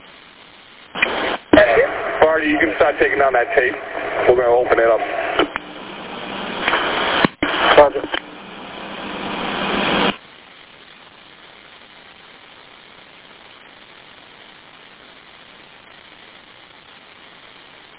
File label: Police Radio